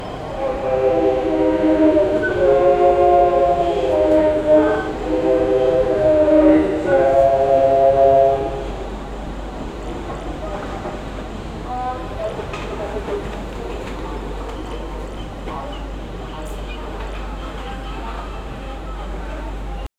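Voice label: chennai central railway station, announcements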